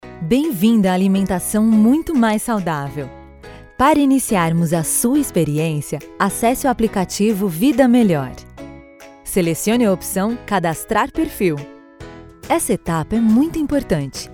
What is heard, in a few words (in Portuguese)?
Locucao; VidaMelhor